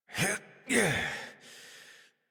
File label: Horse Dismount Adult; Warframe; Duviri